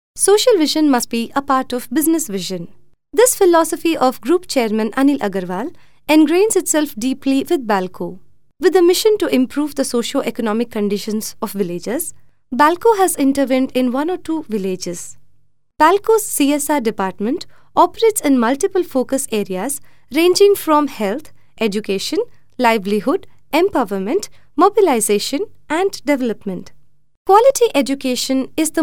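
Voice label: English Indian Female E-learning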